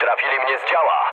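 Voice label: damaged aa, mood low, Polish speech; War Thunder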